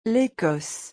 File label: l'Ecosse pronunciation french